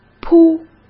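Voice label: "扑", Mandarin Chinese; chinese-flashcards; hsk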